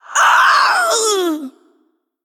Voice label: Female Forsaken Death